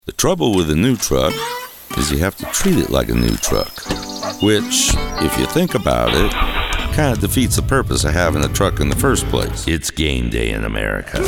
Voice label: Commercial